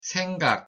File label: "생각" Korean pronunciation